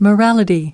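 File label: "Morality" US English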